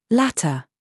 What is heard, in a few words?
DRESS TRAP, minimal pairs